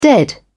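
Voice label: "dead", en, British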